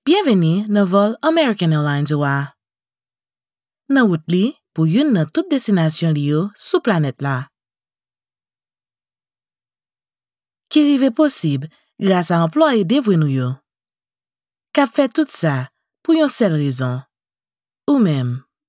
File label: haitian creole commercial